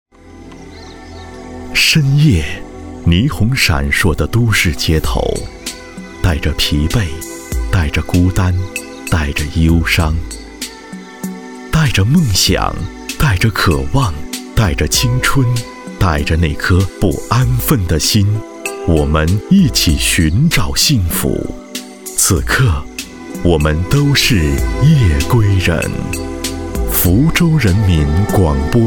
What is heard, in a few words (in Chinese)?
男 新闻广播; 福州; 都市夜归人